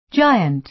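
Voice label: "giant", American English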